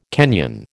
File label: "Kenyan", American